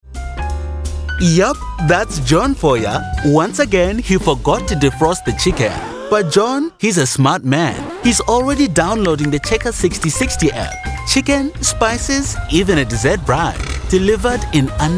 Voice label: English South African, Male; voice-clips-database